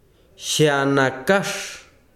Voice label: besiro raiz, chiquitano

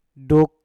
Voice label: "duk", Mpur; kencing

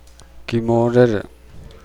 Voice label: "bat", Nukuoro

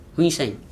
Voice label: Gta chick, Dialect: Hill

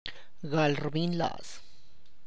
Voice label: sadness, Tlacochahuaya

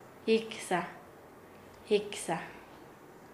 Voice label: "maton", Nasa Yuwe; paez

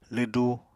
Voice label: "lwdu", Apatani

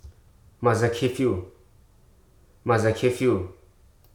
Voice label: "hesitant", Hruso

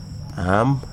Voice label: "breasts", Wahgi; lae unitech

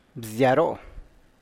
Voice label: big local beans, Zapotec; bzyaro; (Spanish) frijoles criollos (grandes)

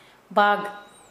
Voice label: Kera Mundari F tiger

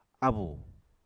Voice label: "abù", apatani

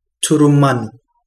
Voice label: knife, Kapingamarangi

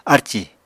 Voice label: "arcì", Apatani

